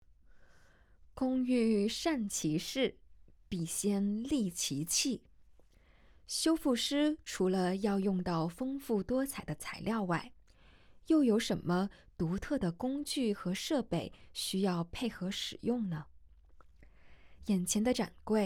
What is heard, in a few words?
Putonghua; audio guide